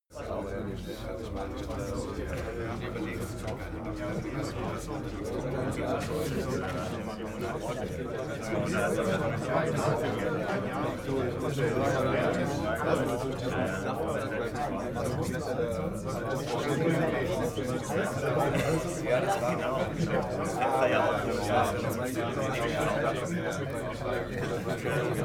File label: talk german neutral long